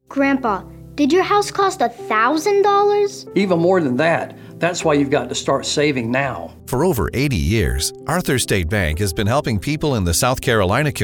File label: RADIO Real Estate